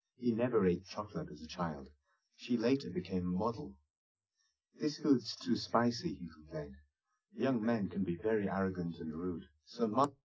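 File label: male speech; SiSEC10; underdetermined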